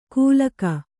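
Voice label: "kūlaka" Kannada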